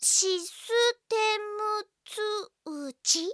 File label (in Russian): zvuki s golosom iaponskoi anime devushki